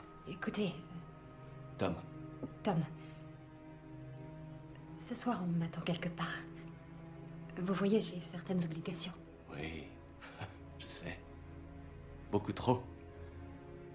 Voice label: doublage; 2eme sous sol

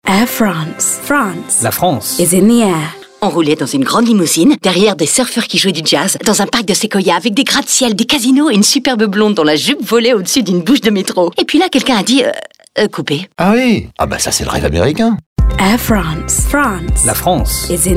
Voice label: pub comedie; air france reve americain

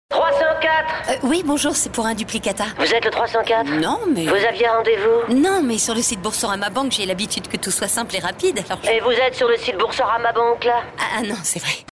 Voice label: pub comedie intimidee; boursorama

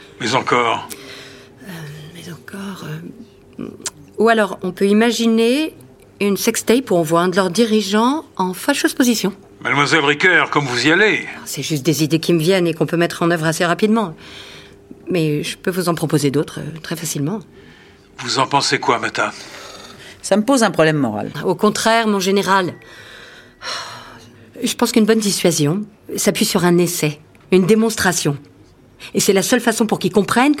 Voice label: podcast; hexagone balard

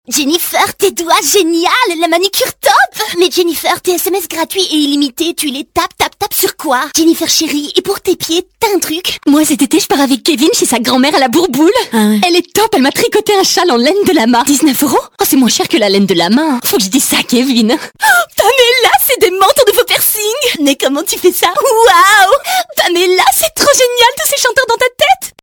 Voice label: pub comedie hystero medley; sfr

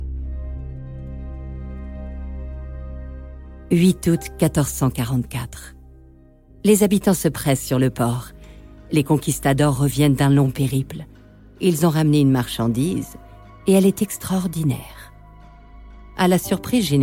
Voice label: narration l'esclavage

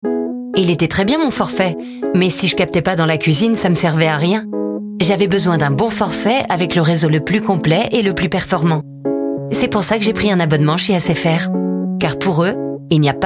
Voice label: pub comedie; sfr

